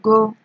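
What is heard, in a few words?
"go", Nigerian Pidgin, female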